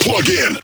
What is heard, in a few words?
Vocal Phrase Male "Plug In"